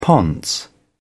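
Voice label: "ponce", British English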